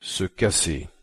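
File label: "se casser" French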